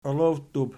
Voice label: "olowttub", Archi